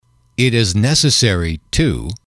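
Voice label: it is necessary (to), English, male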